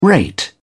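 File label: "rate", British English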